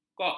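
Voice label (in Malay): "kok", Malay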